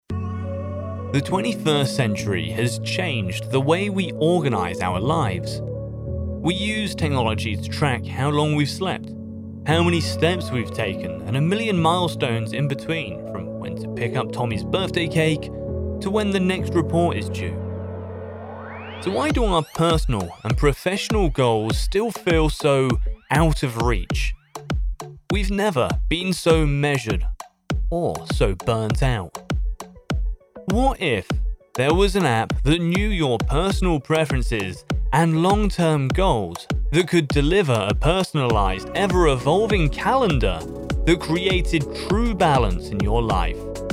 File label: Explainer